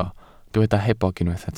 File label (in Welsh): "hebog", Welsh